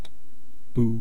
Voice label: "puu", East Cree syllabics